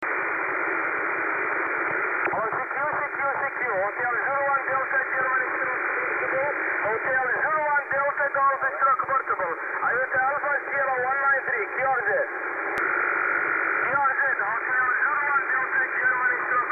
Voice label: 40m ssb